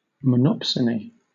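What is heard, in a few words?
"monopsony", British English; pronunciations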